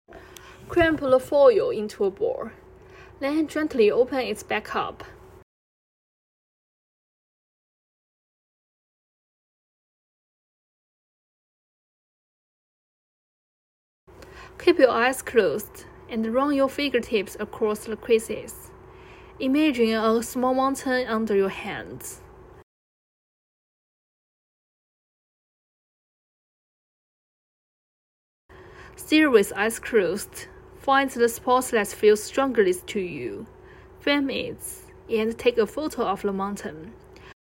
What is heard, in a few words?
optional audio instructions